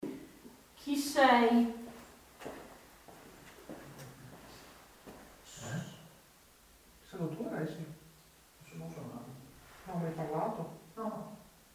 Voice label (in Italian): Vocalizzo dopo domanda; AbbaziaVangadizza